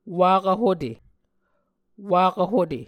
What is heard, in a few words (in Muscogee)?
"wakv hute", Muscogee